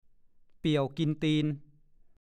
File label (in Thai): "เปียกเข่า", ภาษาโคราช; ต้มข้าวต้ม